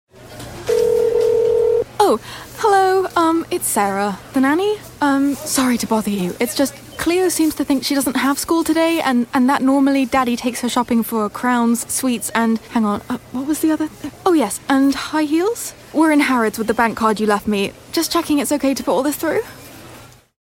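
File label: uk, English - England; harrods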